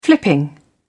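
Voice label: "flipping", British English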